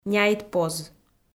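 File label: "няйтпоз", Komi, female; kpv audio dict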